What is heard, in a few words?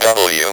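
ROBVOX, robot voice; X-Static Goldmine 1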